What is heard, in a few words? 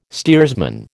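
"steersman", American English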